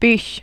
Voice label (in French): "buche", French